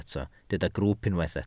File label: "grwwp", Welsh